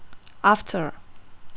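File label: "after", Russian, female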